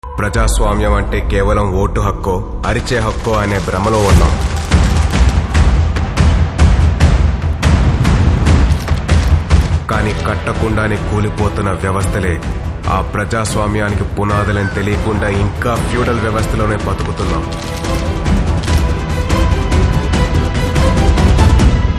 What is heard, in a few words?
Teaser Dialogue; Republic Teaser; Sai Dharam Tej